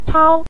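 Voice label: "chao" Mandarin Chinese, neutral tone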